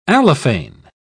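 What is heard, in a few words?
"allophane", British English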